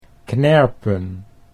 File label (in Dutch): "knerpen", Dutch